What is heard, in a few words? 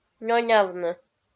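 "ненявны", Komi-Zyrian, female; kpv audio dict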